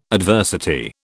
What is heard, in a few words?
"adversity", British